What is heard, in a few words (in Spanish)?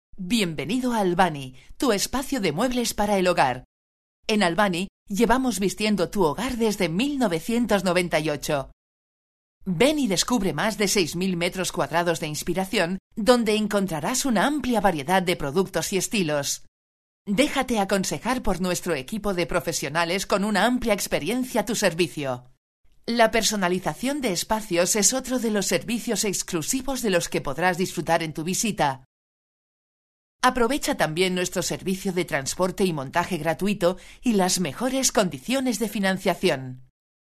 FEMALE Video, Locutora online española-catalana